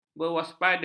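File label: "berwaspada", Malay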